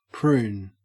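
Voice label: "prune", Australian English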